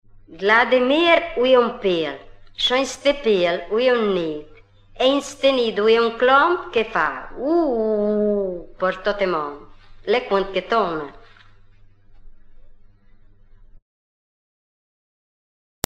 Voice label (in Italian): indovinello, romagna